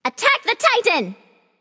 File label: vox attack m; smite; scylla